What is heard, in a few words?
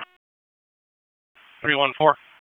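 Police Northeast District Dispatch; Raleigh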